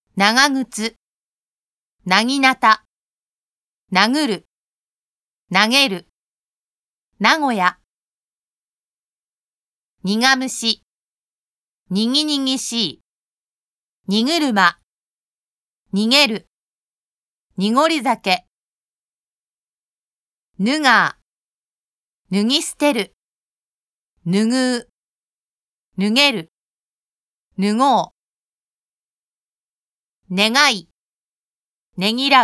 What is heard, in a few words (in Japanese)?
濁音・鼻濁音, japanese pronunciation